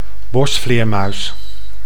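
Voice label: "bosvleermuis", Dutch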